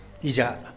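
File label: "izhaa", Tlacochahuaya Zapotec; livestock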